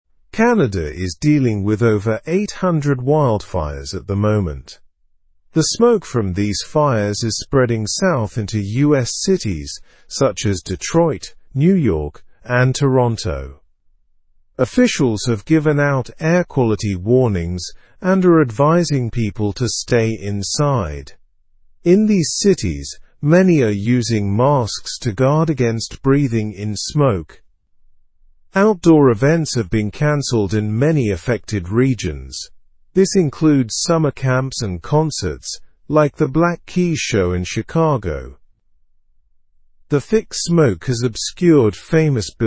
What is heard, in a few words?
english news lower intermediate; canada s wildfires create smoke alert in us